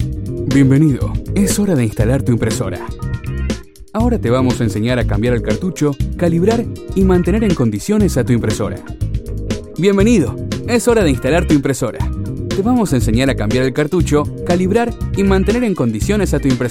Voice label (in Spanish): Insitucional Elearning Locutor Argentino